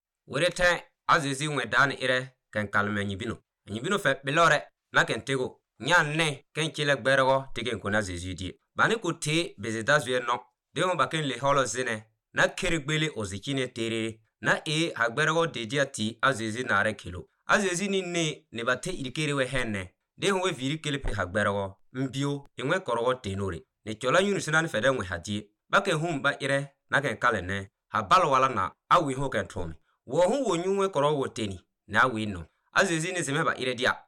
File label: Pougouli; Jesus guerrit un paralytique; Jesus Heals the Man Lowered through the Roof